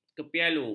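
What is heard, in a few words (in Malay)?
"kepialu", Malay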